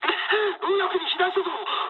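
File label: Japanese right wing mood med; War Thunder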